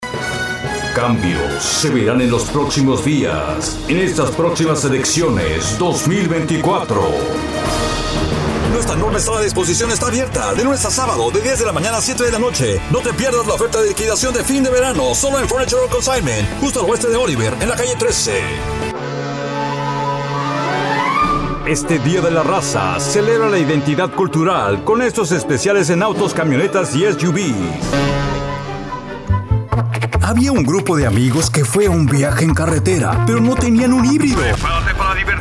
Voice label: Spanish

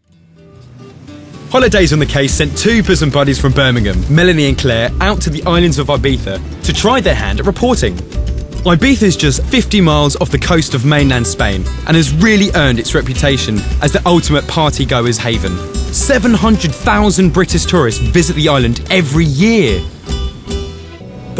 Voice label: NARRATIVE

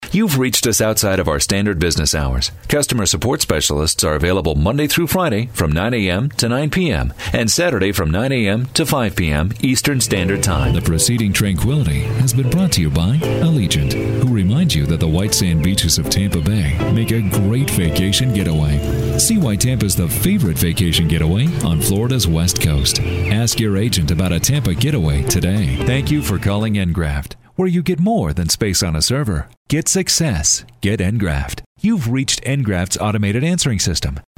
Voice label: On Hold and Telephone

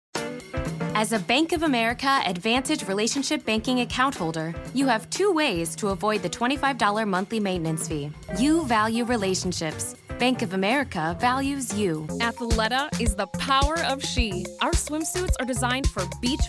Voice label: VO Demo Reel

